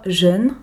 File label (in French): schwa jeune